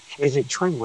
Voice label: Voice Acting, es

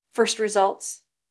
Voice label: Voice Acting es

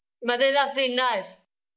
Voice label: Voice Acting es F

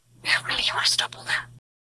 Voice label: Voice Acting es

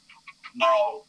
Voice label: Voice Acting es M

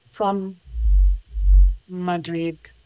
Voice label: Voice Acting es

